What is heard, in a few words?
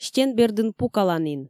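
"стен бердын пукаланін", Komi, female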